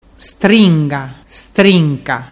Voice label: "stringastrinca", dialettu

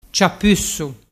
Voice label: "ciapussu", dialettu

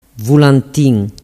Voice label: "vulantin", dialettu

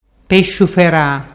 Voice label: pesciufera, dialettu

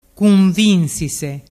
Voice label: "cunvinsise", dialettu

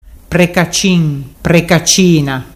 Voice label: precacincina, dialettu

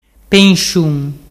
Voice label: pensciun, dialettu

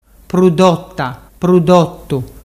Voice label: prudóttadóttu, dialettu

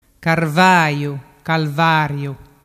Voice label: "carvaiu calvariu", dialettu

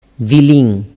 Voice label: "vilin", dialettu

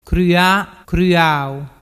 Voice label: "crua cruau", dialettu

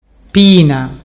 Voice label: pina, dialettu

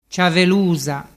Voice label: "ciavelusa", dialettu

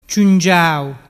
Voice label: "ciungiau", dialettu

